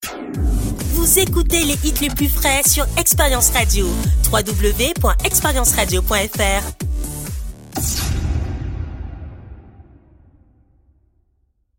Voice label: Jingle Frais souriant; Vous Ecoutez Les Hits Les Plus Frais Sur ExperienceRadio.

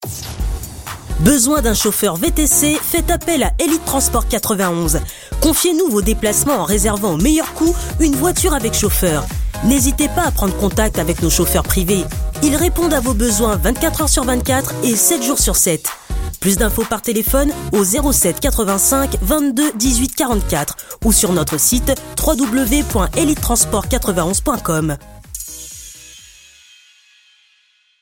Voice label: affirmé convainquant promo jeune homme aigu SPOT PUB RADIO; ELITE TRANSPORT 91

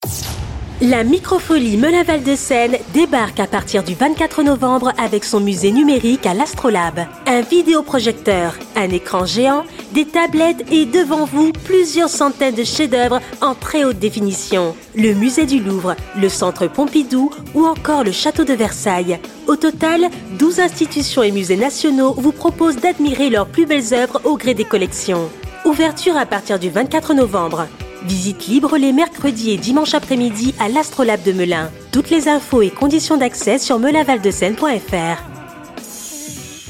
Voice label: Spot publicitaire radio Promo accueillant, voix off; MICRO FOLIE Melun Val De Seine.

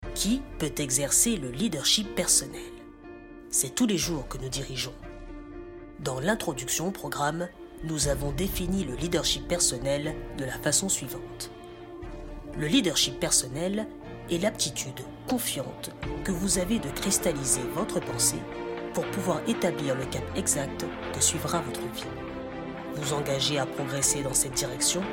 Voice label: homme senior posé grave explicatif; Le leadership personnel

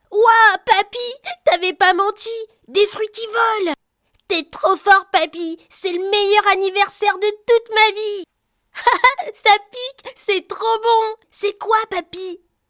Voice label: Enfant Jeune garçon joyeux emmerveillé enjoué curieux; BD BOBOZ

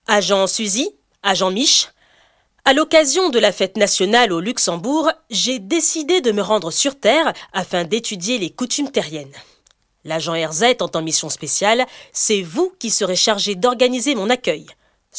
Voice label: sérieuse assurée adulte stricte grave; Commandante Alpha Fête nationale Nâchst statioun

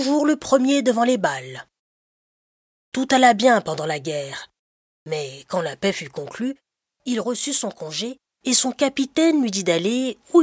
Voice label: conte homme senior grave; Lhomme à la peau dours Les frères grimm

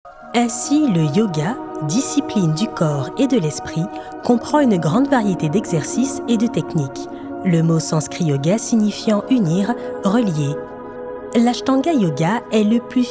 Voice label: L'ASHTANGA YOGA le plus physique et le plus dynamique de tous les yogas. E-learning; Ton explicatif

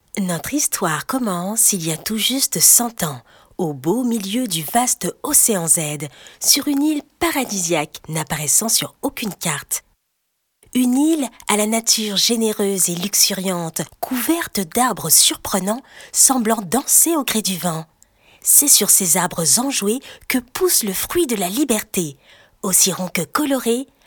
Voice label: Narration voix femme; BD BOBOZ

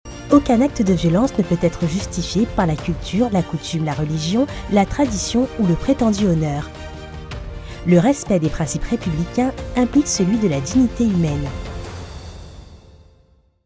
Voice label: extrait Animation Tik Tok, voix off; Droit des femmes; Lhymen; Fédération Gams; Sadressant aux Jeunes

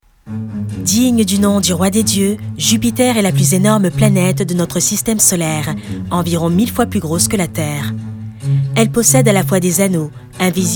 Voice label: narration documentaire; JUPITER

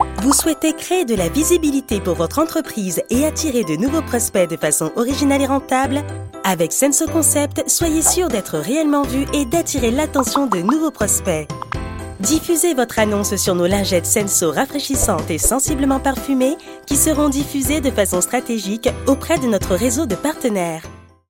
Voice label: ton dynamique explicatif rassurant promo; SENSO KONCEPT

